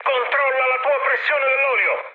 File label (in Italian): damaged oil, mood med, speech it; War Thunder